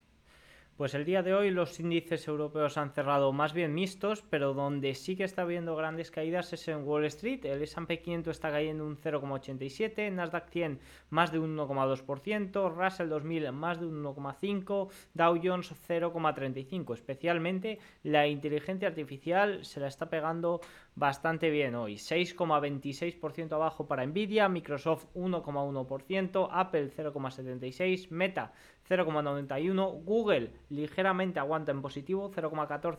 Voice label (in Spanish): radio; noticias bolsa; caidas en wall street lideradas por la nvidia